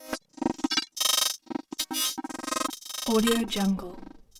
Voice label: vocalizing crunch splitter; soundmorph